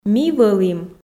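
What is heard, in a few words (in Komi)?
"ми вӧлім", Komi, female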